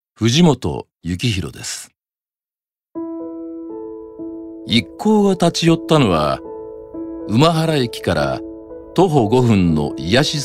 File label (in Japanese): ノーマル